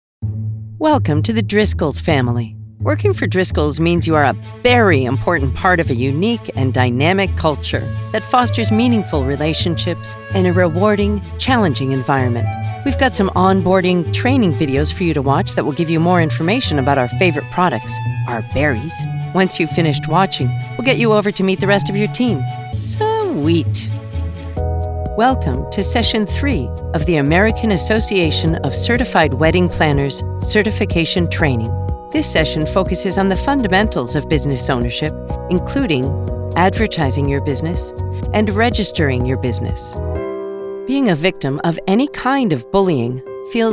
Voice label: E-Learning